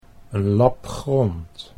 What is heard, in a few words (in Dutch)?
"lapgrond", Dutch